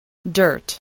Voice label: "dirt", english spelling